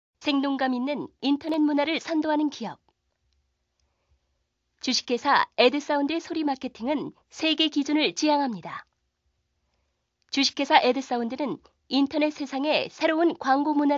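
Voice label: Korean, female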